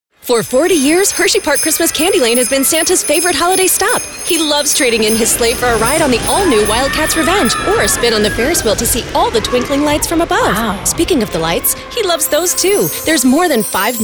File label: English, Christmas Commercials; US; Christmas Commercials 2023; Hersheypark